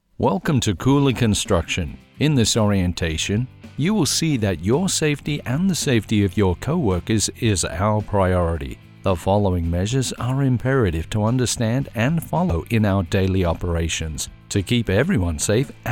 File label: Elearning